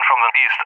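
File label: "from the E", English speech; Allied Flight; DCS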